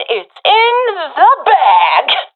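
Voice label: round won, professional female; Counter Strike Model Pack; CS:GO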